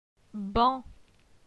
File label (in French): "Ban", phonetique; la syllabe an